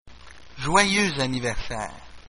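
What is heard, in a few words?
happy birthday french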